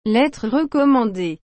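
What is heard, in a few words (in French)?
"lettre recommandée", French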